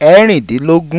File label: "Erindinlogun", Yoruba